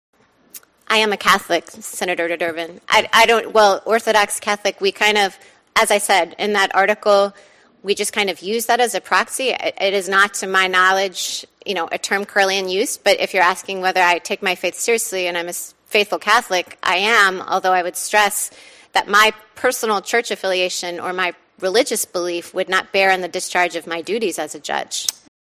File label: child voice